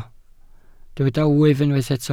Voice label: "wyf", Welsh

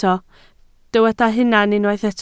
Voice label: "hunan", Welsh

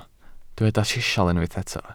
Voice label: "sisial", welsh

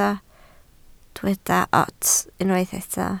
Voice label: "ots", Welsh

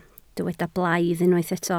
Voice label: "blaidd", Welsh

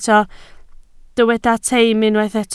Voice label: "teim", Welsh

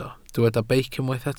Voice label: "beic", Welsh

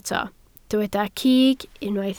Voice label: "cig", Welsh

